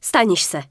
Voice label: Night Elf Female Yes; wowpreklad; czwow; NightElfVocalFemale

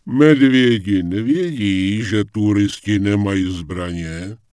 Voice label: Night Elf Male Standard NPC Greeting; wowpreklad; czwow

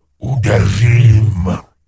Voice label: Gnome Male Charge; wowpreklad; czwow; GnomeVocalMale

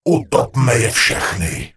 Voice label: Gnome Male Open Fire; wowpreklad; czwow; GnomeVocalMale

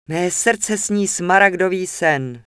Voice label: Night Elf Female Flirt; wowpreklad; czwow; NightElfVocalFemale

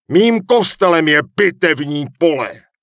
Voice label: Human Male Official NPC Greeting; wowpreklad; czwow

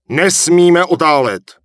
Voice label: Human Male Official NPC Farewell; wowpreklad; czwow

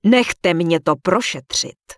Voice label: Human Female cant use item; Error Messages; wowpreklad; czwow; FemaleErrorMessages

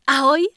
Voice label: Night Elf Female Priestess Greeting; NPC; wowpreklad; czwow

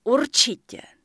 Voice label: Night Elf Female Priestess Pissed; NPC; wowpreklad; czwow

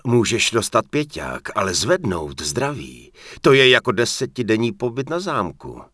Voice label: Human Male Heal Me; wowpreklad; czwow; HumanVocalMale

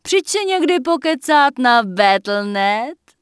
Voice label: Human Female Merchant Start Shopping; wowpreklad; czwow; NPC

